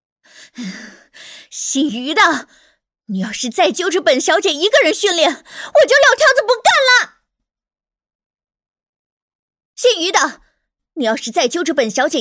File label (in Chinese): 广播剧少御角色音